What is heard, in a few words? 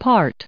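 "part", us English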